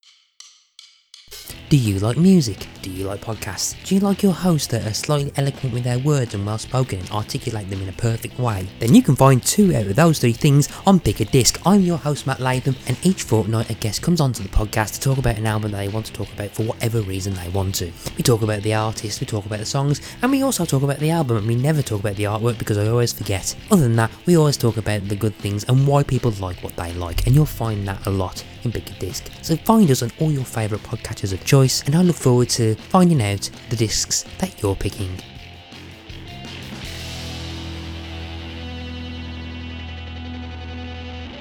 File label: pad advert